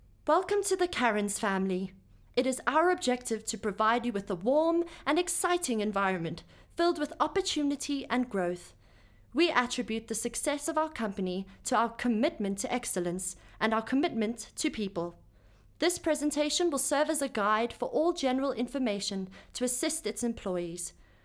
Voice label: Corporate